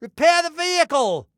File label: Repair Vehicle, Male; Male 02